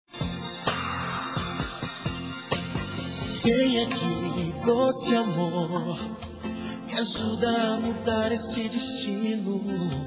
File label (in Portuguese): ROMANTICO DISTANTE F; Telemensagens; Românticas